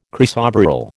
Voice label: "chrysoberyl", American

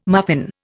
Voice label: "muffin", Indian

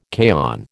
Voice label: "kaon", American

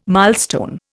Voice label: "milestone", Indian

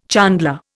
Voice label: "chandler", Indian

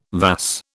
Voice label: "vas", British

